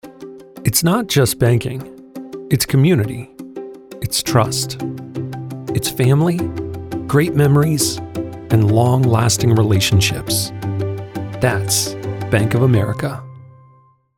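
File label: commercial, voice over for commercials; bofa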